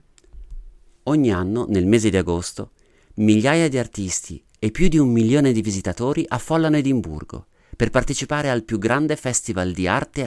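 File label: Italian, Documentary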